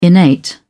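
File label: "innate", British English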